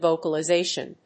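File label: "vocalization", English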